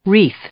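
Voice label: "wreath", English, female; noun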